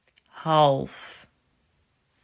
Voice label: "Half", Dutch